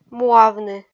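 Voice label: "муавны" Komi, female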